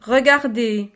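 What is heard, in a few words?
"regarder", French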